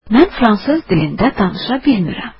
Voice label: I dont speak French, azeri